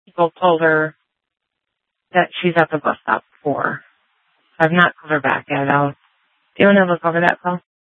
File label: Sheriff, scanner